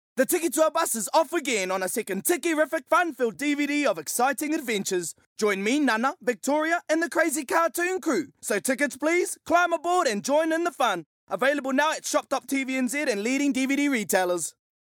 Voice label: accented english male